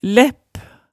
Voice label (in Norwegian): "læpp", hemsedalsmaalet tale